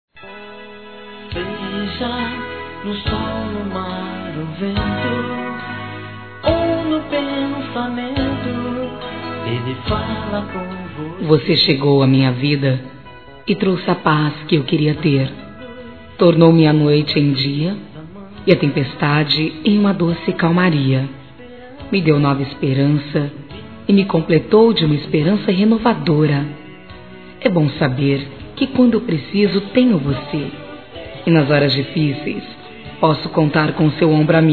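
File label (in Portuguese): ROMANTICA SUAVE, feminino; Telemensagens; Telemensagens 2017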